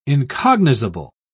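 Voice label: "incognizable", British English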